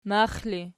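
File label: "max" ergative, Archi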